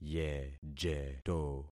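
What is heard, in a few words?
"yejeto", concatenated syllables; Affixing experiment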